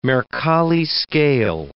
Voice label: "Mercalli scale", English